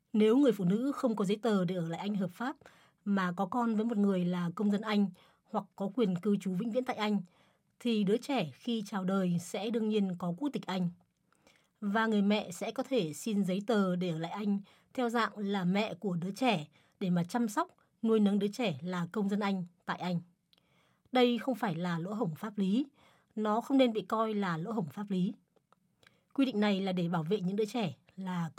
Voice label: VI, General; GoLocalise

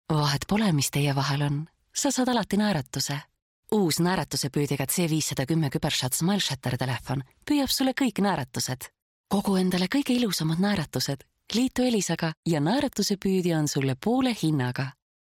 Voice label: ET, Commercial; GoLocalise

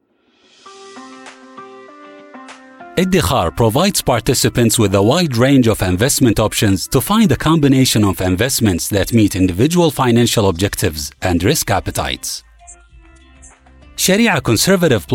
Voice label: AR, English accented; GoLocalise

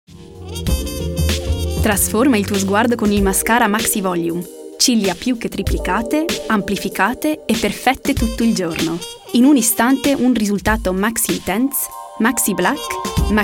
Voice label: IT, Commercial; GoLocalise

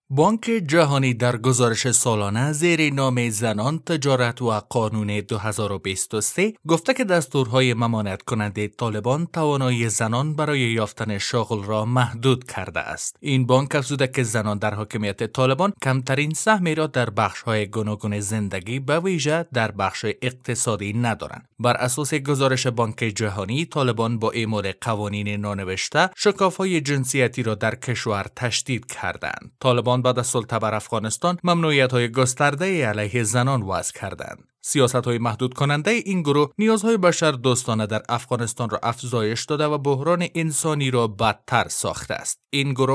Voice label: Dari; GoLocalise

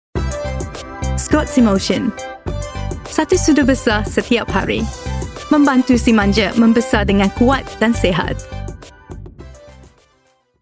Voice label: MS, Commercial; GoLocalise

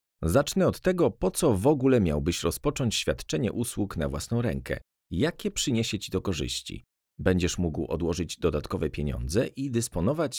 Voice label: PL, E-Learning

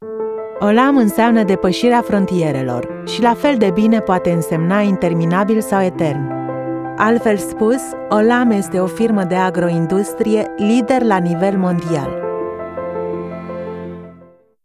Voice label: RO, Corporate

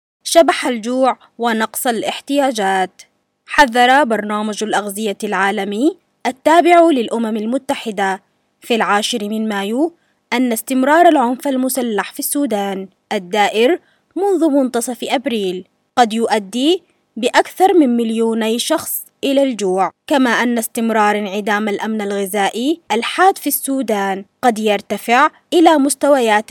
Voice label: Arabic, General, Standard Arabic; GoLocalise

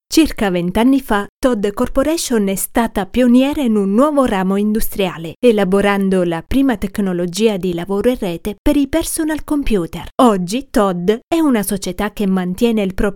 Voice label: IT, Corporate

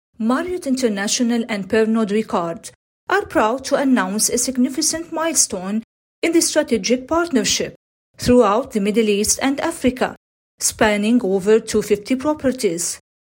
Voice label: AR, Acc EN; GoLocalise

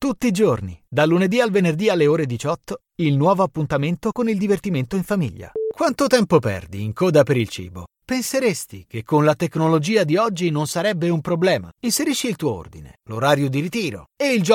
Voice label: IT, Commercial

